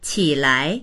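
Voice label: "qi3lai2", Chinese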